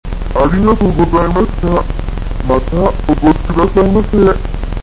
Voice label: sample low, voice